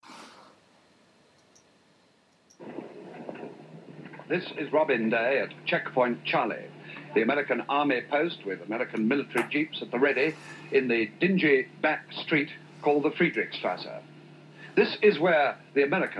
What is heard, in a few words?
older general british; Checkpoint